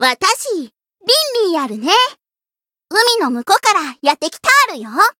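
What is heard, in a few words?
Voice story, japanese; lingli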